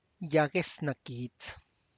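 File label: tlacochahuaya, Zapotec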